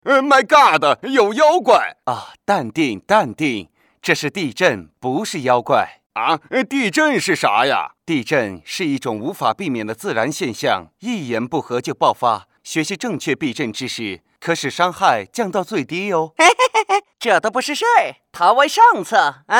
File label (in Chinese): 男-师徒四人模仿; 西游记